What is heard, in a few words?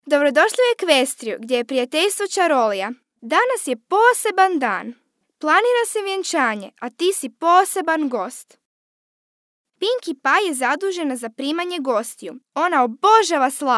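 Croatian CHILD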